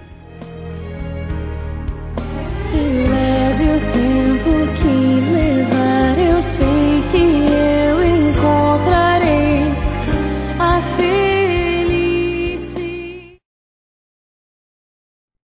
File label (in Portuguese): Dia mulher geral masc; Telemensagens 2017; Dia Internacional da Mulher